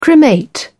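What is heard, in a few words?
"cremate", British English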